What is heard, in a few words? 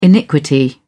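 "iniquity", British English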